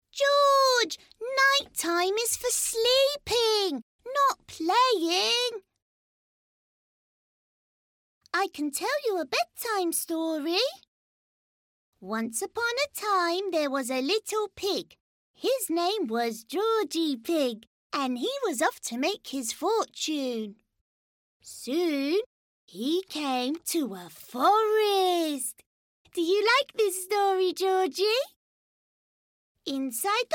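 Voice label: ENUK Characters, British English; GoLocalise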